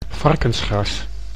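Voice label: "varkensgras", Dutch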